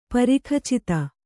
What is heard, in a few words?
"ಪರಿಖಚಿತ", Kannada